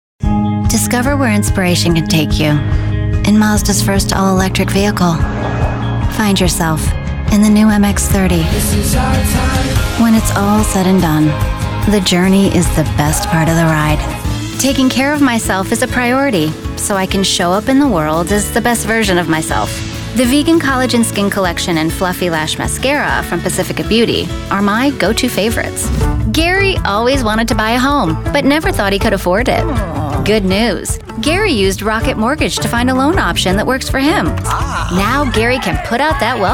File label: Commercials, femalevoices